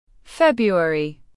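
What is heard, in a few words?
"February", British English; ˈfeb.ruˌer.i; تلفظ بریتانیایی فوریه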